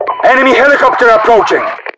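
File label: Russian enemy heli, voiceovers; english; mpvoice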